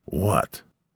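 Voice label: "What", angry voice; Treasure of Nadia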